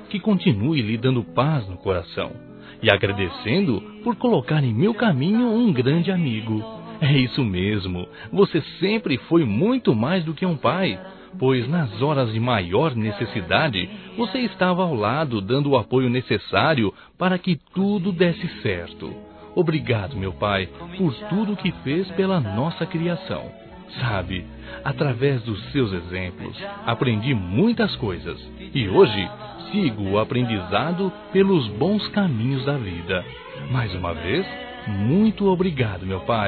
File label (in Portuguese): Aniversário Pai Masculino; Aniversários; Aniv Familiar